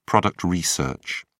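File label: "product research", English, British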